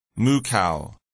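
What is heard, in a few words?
"moo cow", English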